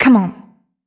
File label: fem spo, Vocals